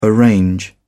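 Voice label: "arrange", British English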